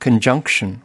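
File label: "conjunction", US English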